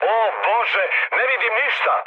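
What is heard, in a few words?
Serbian searchlite mood med; War Thunder; speech srb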